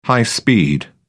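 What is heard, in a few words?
"high-speed", English, British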